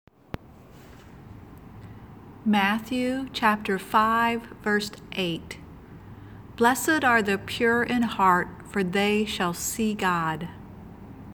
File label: childrens bible verse; matthew 5 8